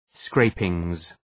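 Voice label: "scrapings", English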